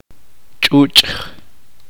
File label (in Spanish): uspanteko liso